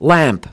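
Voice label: "lamp", English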